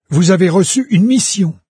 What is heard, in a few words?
new quest, fr, NARRATOR; Fate Undiscovered Realms